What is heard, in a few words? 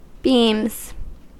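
"beams", US English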